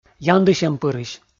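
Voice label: "яндысьӧмпырысь", Komi, male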